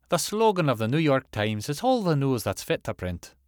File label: Irish